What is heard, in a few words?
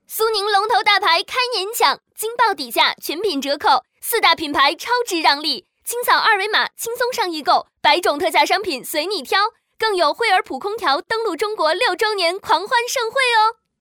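Mandarin Chinese, female, voice over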